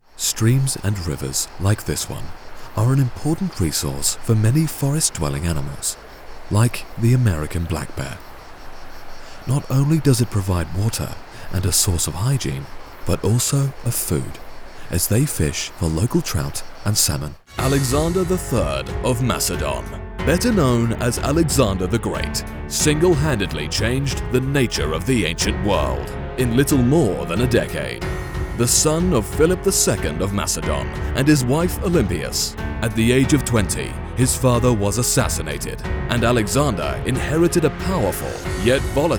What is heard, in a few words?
Documentary